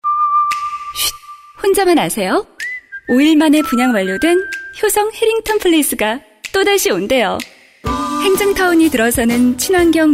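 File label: Korean, female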